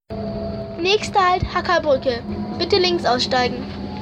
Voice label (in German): Durchsage